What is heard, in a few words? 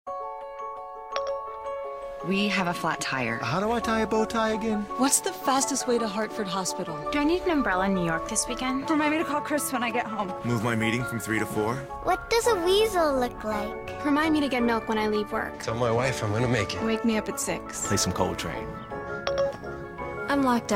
iPhone Siri TV commercial; Apple iPhone 4S